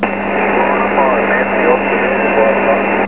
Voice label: 28 ssb